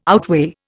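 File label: "outweigh", Indian English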